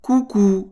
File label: "coucou", French; Hi; (during the day); To close people; (more feminine and kids)